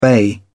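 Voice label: "bay", English, British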